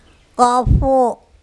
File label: kOfo banana, andamanese